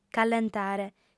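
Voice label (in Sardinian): "callentare", female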